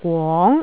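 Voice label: "gwong2", Cantonese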